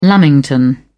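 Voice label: "lamington", British English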